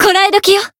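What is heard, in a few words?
Voice heal, Japanese; hisumena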